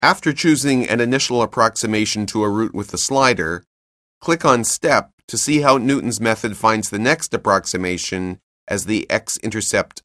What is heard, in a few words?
narration; CALCULUS 7E; cengage